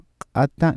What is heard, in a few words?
kata ejective, Cusco Quechua; kʼ/ k’ata